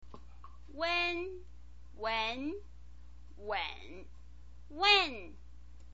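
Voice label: "uen", Mandarin Chinese pinyin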